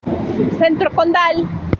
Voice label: Voice message